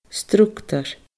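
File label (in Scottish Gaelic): "structar", Scottish Gaelic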